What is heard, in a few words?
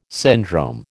"syndrome", American